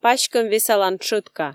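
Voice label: "паськӧм весалан тшӧтка", Komi, female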